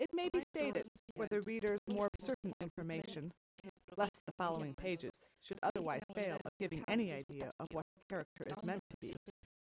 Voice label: female; SASSEC07